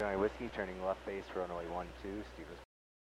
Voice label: Aviation 122.7; KSTE